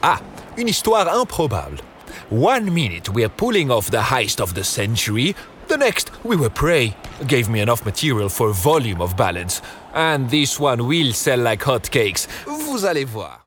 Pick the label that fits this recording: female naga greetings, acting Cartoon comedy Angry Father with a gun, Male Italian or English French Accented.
English French Accented